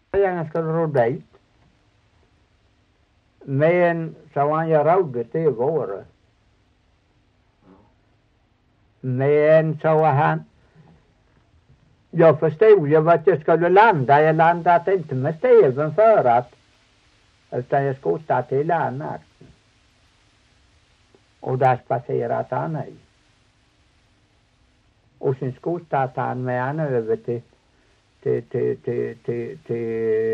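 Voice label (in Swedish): Torhamn Blekinge, Svenska sagor dialekter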